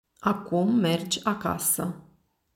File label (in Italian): Rumeno